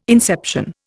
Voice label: "inception", Indian

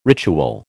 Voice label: "ritual", American

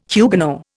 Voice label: "Huguenot", Indian

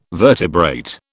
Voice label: "vertebrate", British

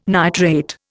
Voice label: "nitrate", Indian

